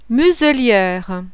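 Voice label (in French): "MUSELIERE", French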